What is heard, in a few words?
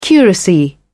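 "curacy", British English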